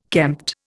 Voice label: "kempt", Indian

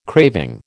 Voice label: "craving", American

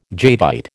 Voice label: "jadeite", American

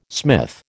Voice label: "smith", American

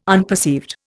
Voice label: "unperceived", Indian

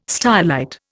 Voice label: "stylite", Indian

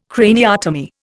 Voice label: "craniotomy", Indian

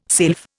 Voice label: "sylph", Indian

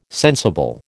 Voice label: "sensible", American

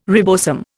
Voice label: "ribosome", Indian

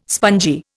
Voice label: "spongy", Indian

